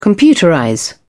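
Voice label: "computerize", English, British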